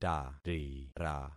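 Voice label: "tarira", concatenated syllables; Affixing experiment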